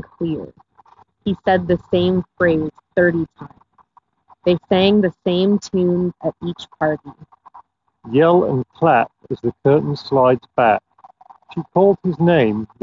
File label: Street microphone sample; GN Jabra Elite 7 Pro